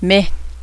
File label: water monster, cheyenne language